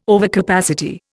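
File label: "overcapacity", Indian English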